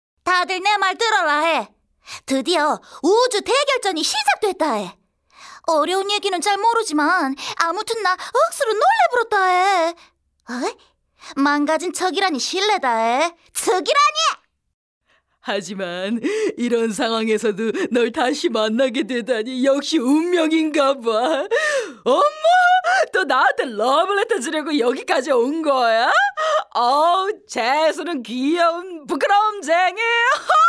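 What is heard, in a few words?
Korean female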